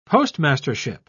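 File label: "postmastership", British English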